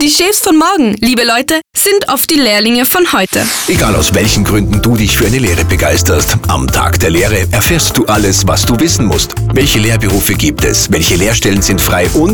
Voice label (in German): Radiospot; Tag der Lehre; für das Land Tirol